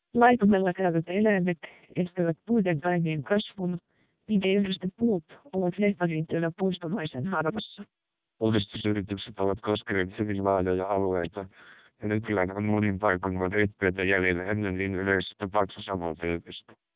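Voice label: Finnish short, speechsamples, MELPe 600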